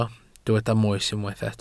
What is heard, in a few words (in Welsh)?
"moes" Welsh